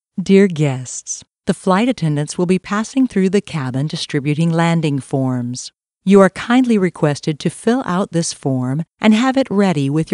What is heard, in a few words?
English; Israir